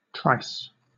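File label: "trice", British English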